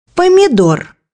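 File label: "tomato", Russian; bukvar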